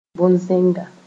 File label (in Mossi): "bõn-zẽnga", moore